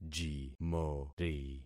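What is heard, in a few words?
"jimori", concatenated syllables; Affixing experiment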